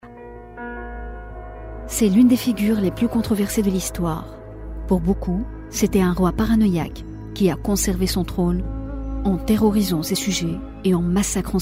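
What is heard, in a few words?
French Tunisian, female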